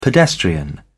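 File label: "pedestrian", English, British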